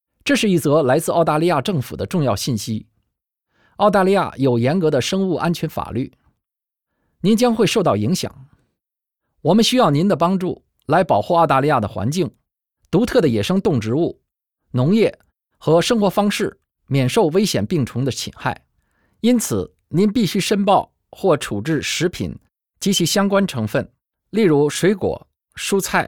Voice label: incoming passenger announcement mandarin; aircraft